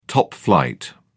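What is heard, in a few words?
"top-flight", British English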